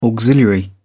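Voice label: "auxiliary", English, British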